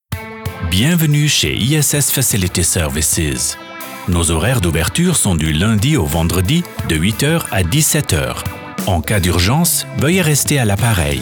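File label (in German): Ansage CH DE, Swiss German announcement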